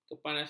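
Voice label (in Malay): "kepanasan", Malay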